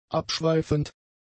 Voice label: "abschweifend", German; adjectives

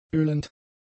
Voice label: "ölend", German; adjectives

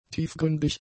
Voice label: "tiefgründig", German; adjectives

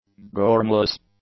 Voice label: "gormless", english; adjectives

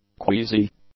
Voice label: "queasy", English; adjectives

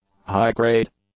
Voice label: "high-grade", English; adjectives

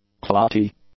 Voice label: "clotty", English; adjectives

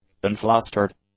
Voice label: "unflustered", english; adjectives

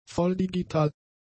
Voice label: "volldigital", German; adjectives

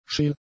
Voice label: "scheel", german; adjectives

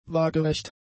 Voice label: "waagerecht", German; adjectives

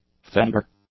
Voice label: "fender", English; nouns

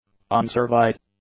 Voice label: "unsurveyed", english; adjectives

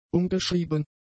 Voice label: "umgeschrieben", German; adjectives

